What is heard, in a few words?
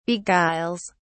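"beguiles", en, uk British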